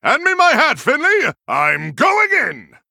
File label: Male Human Picked